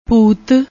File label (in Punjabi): "bhoot", Punjabi; ਭੂਤ